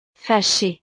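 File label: "fachee", French; estados animo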